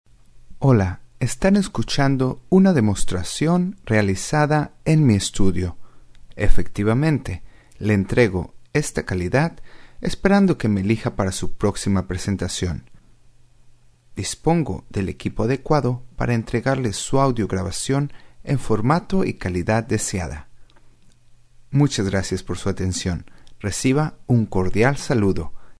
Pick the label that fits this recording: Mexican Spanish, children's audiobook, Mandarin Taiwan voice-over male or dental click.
Mexican Spanish